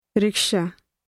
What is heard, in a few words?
rikshaw, punjabi; English: rickshaw